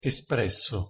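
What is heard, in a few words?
pronunciation "espresso", Italian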